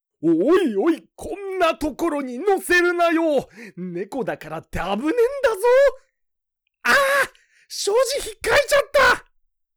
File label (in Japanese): アフレコ部門; にゃんだらけVol.11